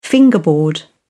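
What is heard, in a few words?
"fingerboard", British English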